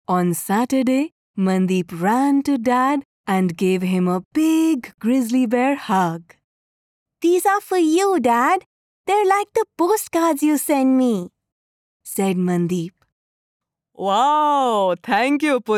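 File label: Audiobook English; Mandeep's Cloudy Days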